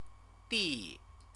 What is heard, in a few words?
"di", Chinese; CTCFL; University of Oxford